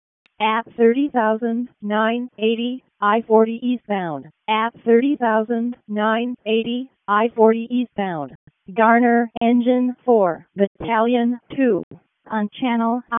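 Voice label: Fire Department Alert, p25; wake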